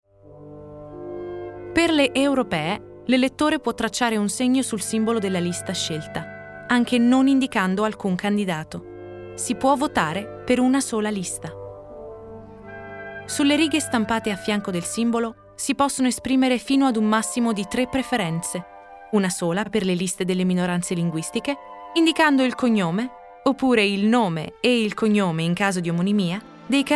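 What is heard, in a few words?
Italian, General; GoLocalise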